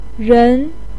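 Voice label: "ren", Chinese pinyin, second tone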